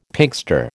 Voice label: "Pinkster", American